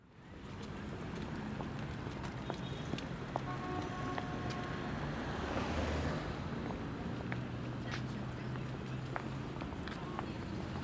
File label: Narration